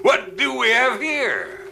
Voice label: what do we have here male; questions